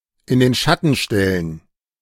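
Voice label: "in den Schatten stellen", German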